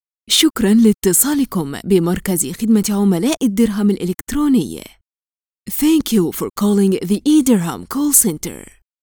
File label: IVR V.O